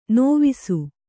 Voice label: "ನೇವಸ", Kannada